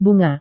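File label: "bunga", Indonesian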